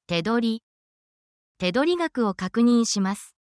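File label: Japanese word, essential Japanese phrases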